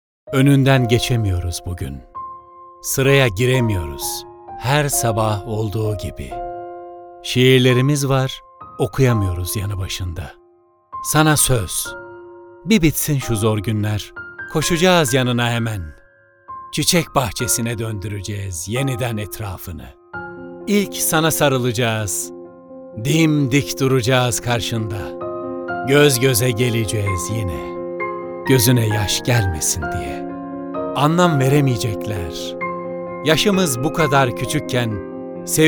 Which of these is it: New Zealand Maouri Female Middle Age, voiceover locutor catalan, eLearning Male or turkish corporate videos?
turkish corporate videos